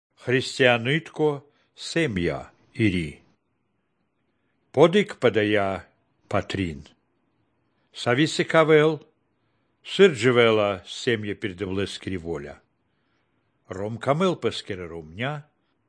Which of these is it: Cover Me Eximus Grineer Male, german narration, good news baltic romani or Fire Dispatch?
good news baltic romani